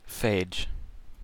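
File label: "phage", American English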